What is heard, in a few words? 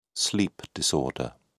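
"sleep disorder", British English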